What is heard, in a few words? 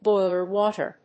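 "BOILER WATER", English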